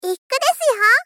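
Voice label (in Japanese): Moe Critical Ikku desuyo, moe loli girl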